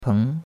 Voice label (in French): "peng2", chinois; Cours-de-Chinois